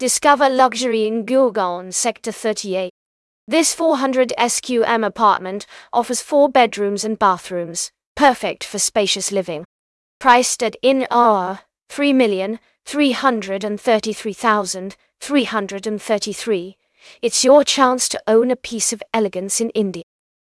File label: narration audio